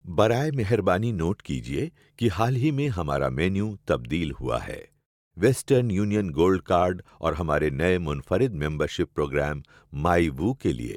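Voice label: Urdu, IVR; GoLocalise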